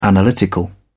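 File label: "analytical", British English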